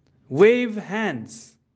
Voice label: "wave", english; grade1; wave your hands

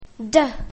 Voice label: "desk", english; grade1; basic sounds 1

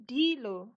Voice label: slow, np

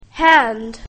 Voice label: "hand", english; grade1; basic sounds 1

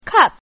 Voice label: "cup", english; grade1; short vowels

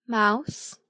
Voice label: "mouse", English; grade1; sounds and words

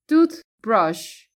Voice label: "toothbrush", english; sun and light

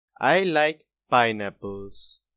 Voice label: pineapple like, boy, english; grade1; what do you like

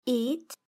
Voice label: "eat", english; grade3; fun with sentence

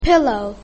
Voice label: "pillow", english; grade1; basic sounds 1

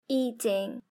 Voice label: "eating", english; grade3; fun with sentence

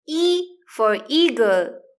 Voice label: "e eagle", english; grade1; identifying letters i

